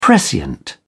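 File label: "prescient", British English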